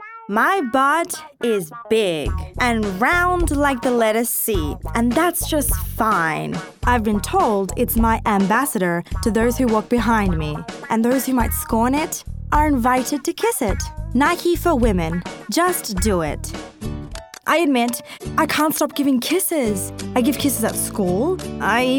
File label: Commercial VO